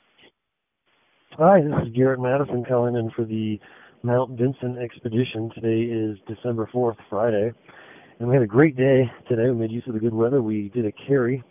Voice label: voicemail